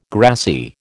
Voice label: "grassy", American